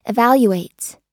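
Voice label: "evaluates", US English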